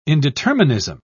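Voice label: "indeterminism", British English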